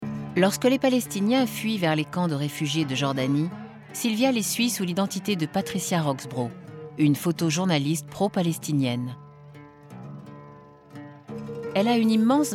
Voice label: narration; SYLVIA RAPHAEL